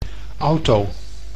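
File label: "auto", Dutch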